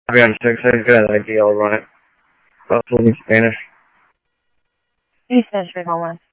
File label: Simulcast Police Dep, scanner audio trunk; Mesa Simulcast; MESA PD 1 Fiesta; MesaPoliceDep